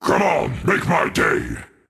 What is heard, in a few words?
Dark Army Barrack Taunt